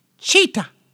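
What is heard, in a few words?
"cheetah", English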